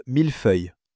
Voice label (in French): "millefeuille", French